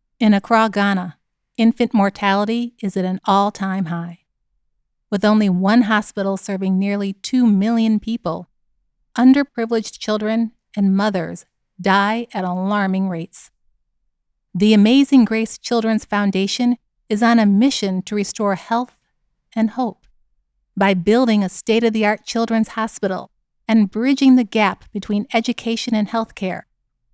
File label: Commercial Compassionate Persuasive Motivational